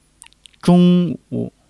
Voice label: "zhong1 wu3", Chinese